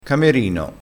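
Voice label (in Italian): "camerino", vocabolario dialettale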